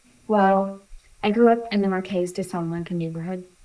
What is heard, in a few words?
Voice Acting es